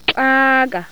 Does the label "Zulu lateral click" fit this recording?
Yes